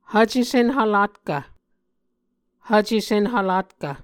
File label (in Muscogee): "hvce senhvlatkv", Muscogee